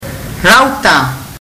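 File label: "rauta", Fijian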